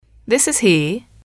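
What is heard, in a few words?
"this is he", English, female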